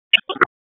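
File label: Sheriff's Office Dispatch; WSO DISP 1; wake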